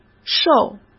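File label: "受" Mandarin Chinese; hsk; chinese-flashcards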